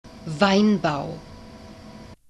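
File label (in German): "Weinbau", German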